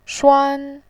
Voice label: "shuan1", voice